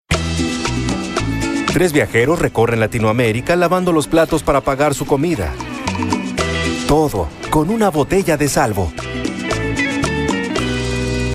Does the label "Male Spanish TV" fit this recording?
Yes